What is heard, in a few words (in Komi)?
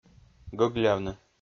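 "гӧглявны", Komi, male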